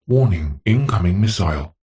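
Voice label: science fiction computer voice male says warning incoming missile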